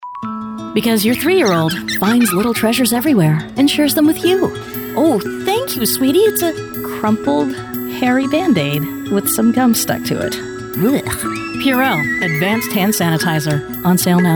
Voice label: Commercial